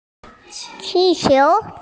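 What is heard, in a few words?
"balloon", Mandarin Chinese, female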